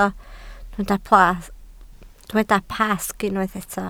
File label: "Pasg", Welsh